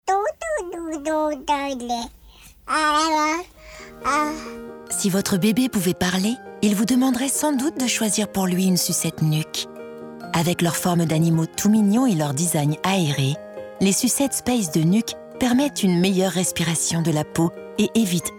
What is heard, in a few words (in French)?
Pub Radio Maman confidentielle; NUK